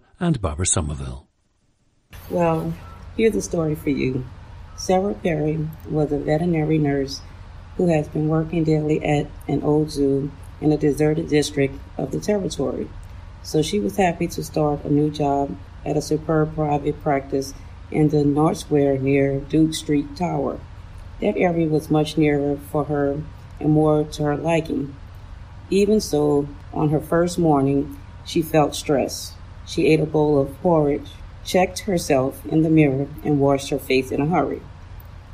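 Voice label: louisiana, International Dialects of English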